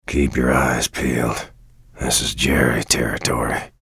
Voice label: chatter neutral; bj blazkowicz